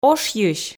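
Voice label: "ошйысь", Komi, female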